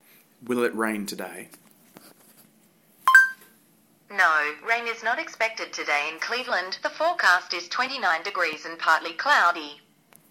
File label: Google Now Voice